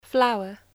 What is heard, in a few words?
"flower", English